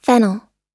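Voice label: "fennel" US English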